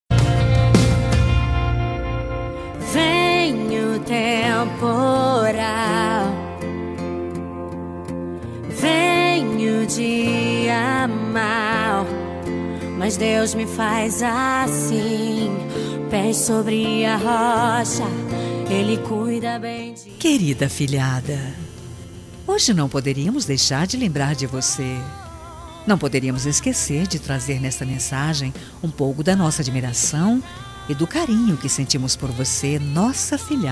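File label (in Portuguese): ANIV AFILHADA female, telemensagem aniversario afilhada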